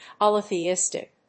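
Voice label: "polytheistic", English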